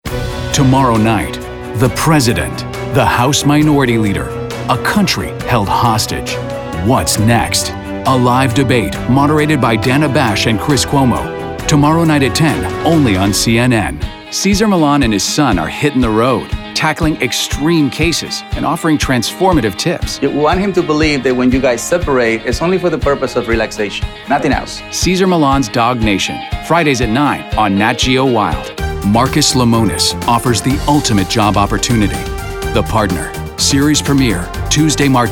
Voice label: promo men